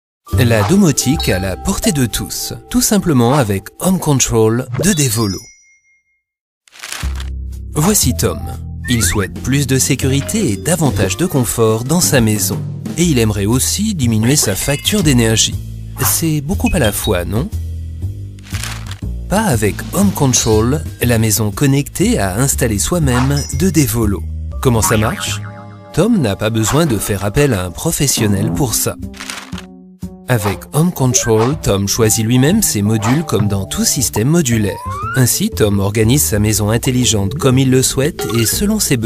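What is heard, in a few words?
French, Lebendig; Fremdtake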